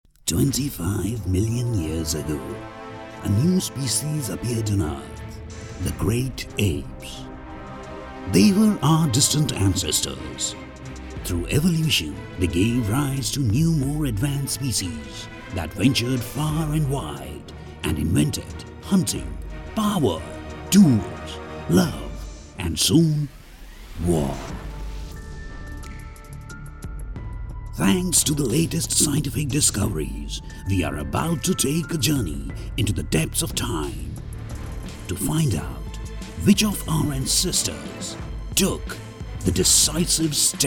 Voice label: narration eng, Heavy Voice English voice over, heavy voice; Experienced, professional voice artiste for English Bengali and Hindi